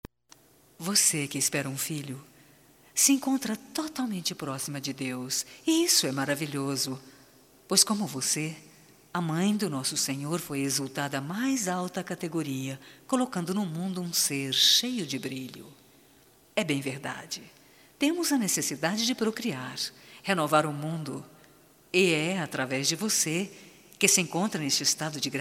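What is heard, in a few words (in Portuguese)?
GRAVIDEZ, female, Telemensagens, Só Voz; Telemensagens 2017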